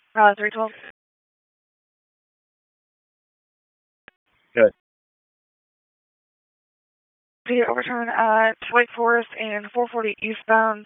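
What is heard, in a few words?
Police District Dispatch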